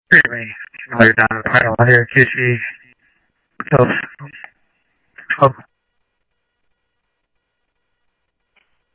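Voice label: Simulcast police department, scanner audio trunk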